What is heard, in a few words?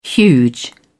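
"huge", English, uk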